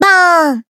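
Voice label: attack, Japanese; nagi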